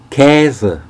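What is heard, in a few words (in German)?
"kaese", German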